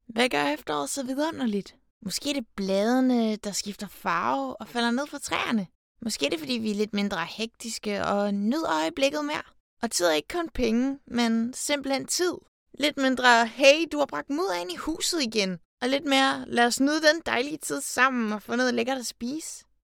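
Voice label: Danish female conversational contemplating engaged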